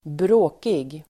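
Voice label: "bråkig", Swedish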